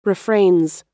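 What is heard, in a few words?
"refrains", American English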